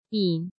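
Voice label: "Yǐn", Chinese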